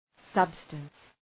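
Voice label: "substance", English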